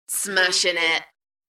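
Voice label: British female Smashin it; beanstalk audio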